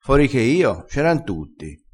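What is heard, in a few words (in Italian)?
"fori che", vocabolario dialettale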